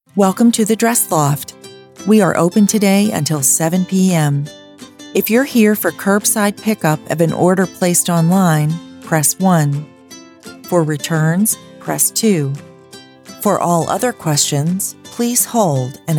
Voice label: Phone IVR with Music Mix

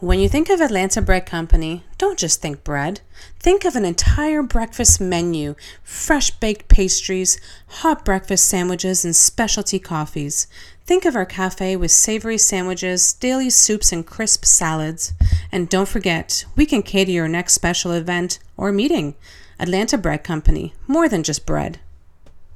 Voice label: commercial for radio

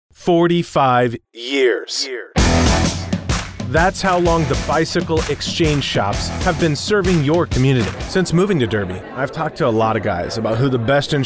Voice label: Local Commercial

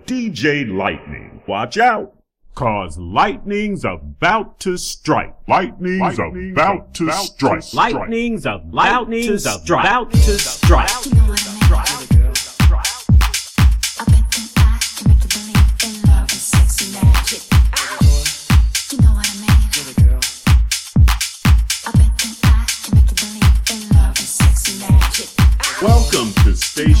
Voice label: Radio promo; Windemup

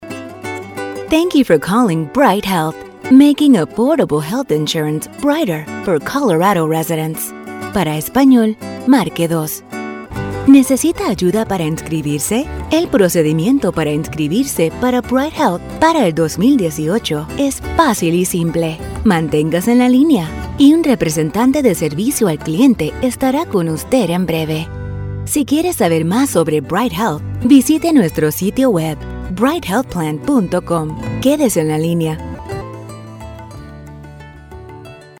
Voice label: ivr telephony english and spanish on hold

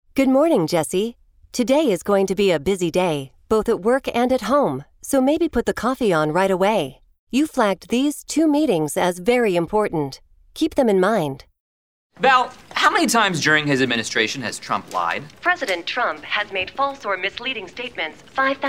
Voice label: Siri Alexa AI

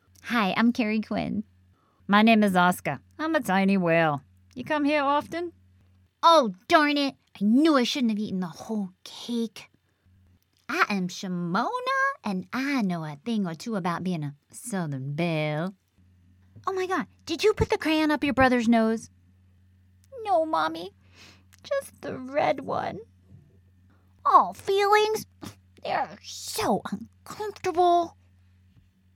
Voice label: Fun Character Voices

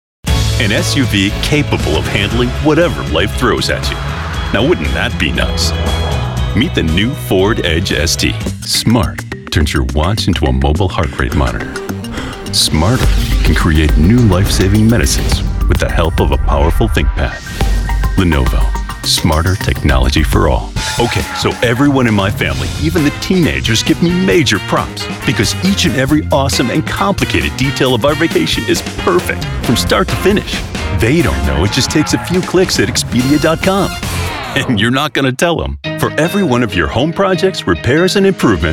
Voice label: Commercial; Commercial Demo

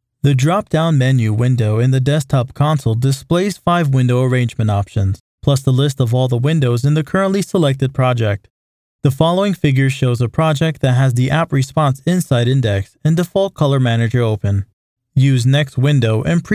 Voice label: E-learning

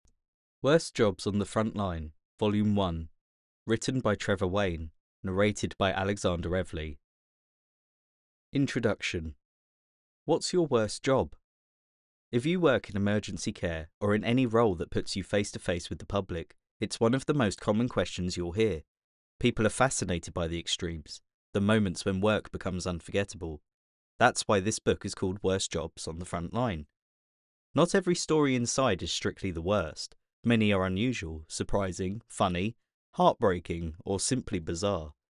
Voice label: Audiobook Snippet

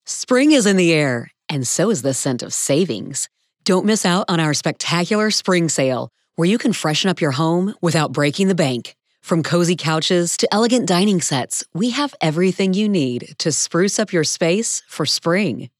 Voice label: Spring Sale Voice Auditions

